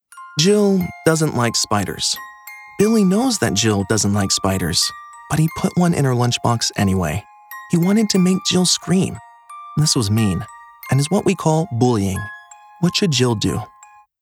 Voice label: eLearning Kids